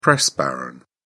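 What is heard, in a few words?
"press baron", British English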